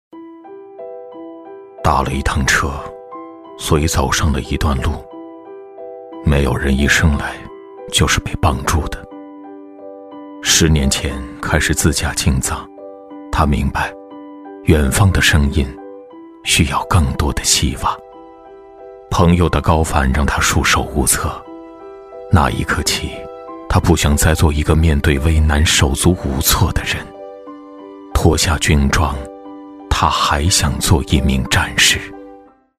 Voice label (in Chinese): 男 模仿; 张涵予